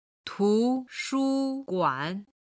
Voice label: "tu2shu1guan3", Chinese

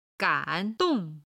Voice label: "gan3dong4", chinese

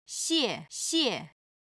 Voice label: "xie4xie5", chinese

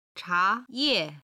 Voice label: "cha2ye4", chinese

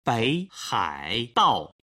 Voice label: "beihaidao", Chinese

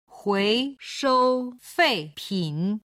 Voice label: "huishoufeipin", Chinese

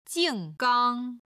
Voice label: "jing4gang1", chinese

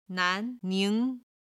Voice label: "nan2 ning2", Chinese

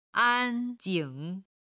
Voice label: "an1jing3", chinese

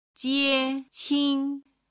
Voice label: "jieqin", Chinese

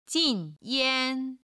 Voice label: "jin4yan1", chinese

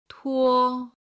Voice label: "tuo", Chinese

